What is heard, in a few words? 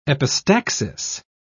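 "epistaxis", British English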